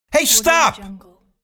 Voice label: male voice irritated hey stop

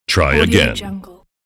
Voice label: announcer saying try again

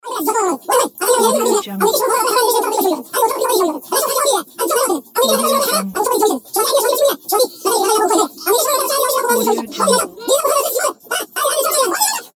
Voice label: cartoon phone babble

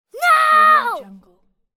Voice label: child yelling no

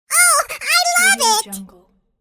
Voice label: cartoon voice oh i love it

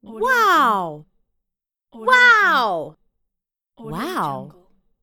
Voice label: female voice wow

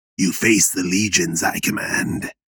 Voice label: vocal you face the legions i command male; fantasy

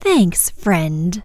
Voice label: vocal dialogue thanks friend female human; rogue

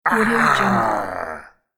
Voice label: pirate arrh

